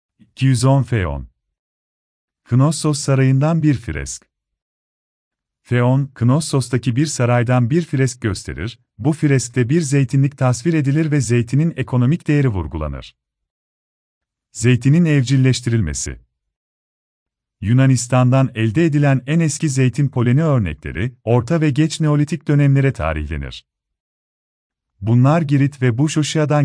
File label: turkish